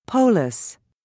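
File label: "polis", British English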